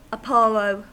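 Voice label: "apollo", US English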